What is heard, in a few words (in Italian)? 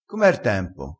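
"schizzetta", dialettale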